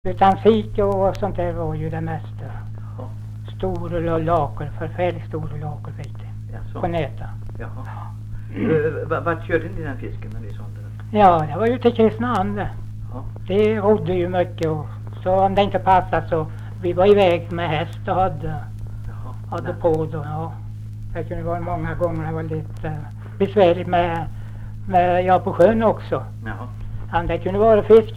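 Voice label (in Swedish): Visnums-Kil Värmland, dialekter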